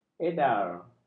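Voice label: "edar", Malay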